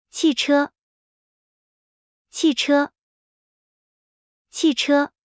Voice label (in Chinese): "汽車", Mandarin; Traditional Chinese